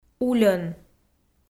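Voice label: "ульӧн", Komi, female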